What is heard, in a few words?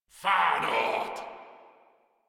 Attack, Grineer Male; Warframe